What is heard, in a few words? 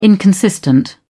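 "inconsistent", British English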